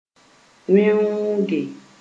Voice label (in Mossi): "wẽooge", Moore